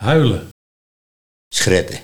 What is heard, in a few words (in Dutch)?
"Schretten", Nieuw lekkerlands dialect; huilen, schreien